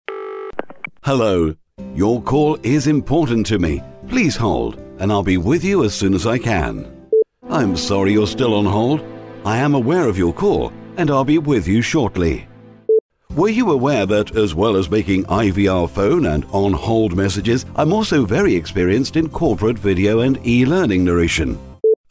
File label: TELEPHONE Voice